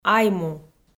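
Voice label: "айму", Komi, female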